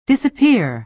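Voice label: "disappear", American English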